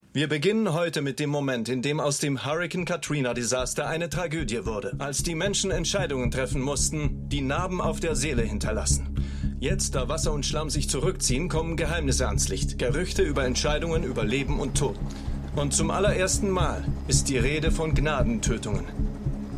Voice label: News Night Moderator; MEMORIAL HOSPITAL - DIE TAGE NACH HURRIKAN KATRINA (DF 2022); Five Days at Memorial